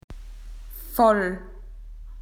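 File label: "full", Australian English; PictureDictionary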